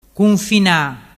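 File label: "cunfina", Vernazzese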